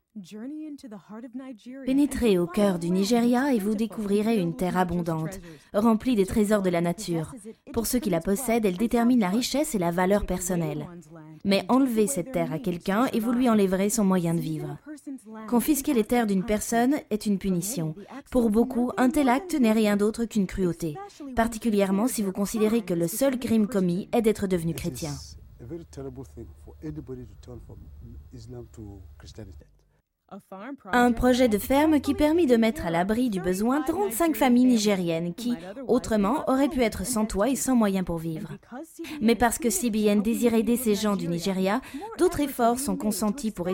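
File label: French, female, narration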